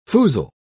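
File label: "foozle", en, uk British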